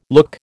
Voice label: "look", American